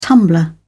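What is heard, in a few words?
"tumbler", British English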